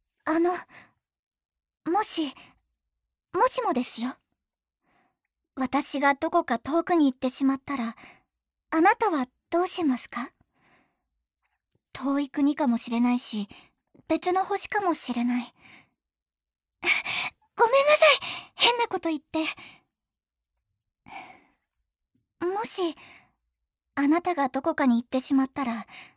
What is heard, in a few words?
Heroine, 清楚なヒロイン